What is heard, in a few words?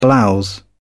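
"blouse", British English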